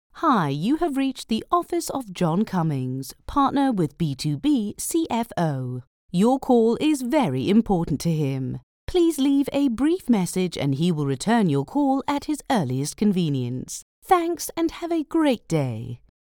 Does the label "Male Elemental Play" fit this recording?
No